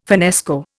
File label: "finnesko", Indian